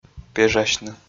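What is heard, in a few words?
"пежасьны", Komi, male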